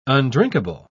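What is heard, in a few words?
"undrinkable", British English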